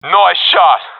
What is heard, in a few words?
sees enemy killed, professional epic; vo; Counter Strike Model Pack; CS:GO